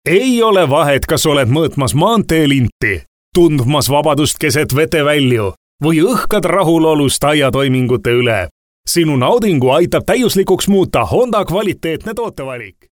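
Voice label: Estonian, male, explainer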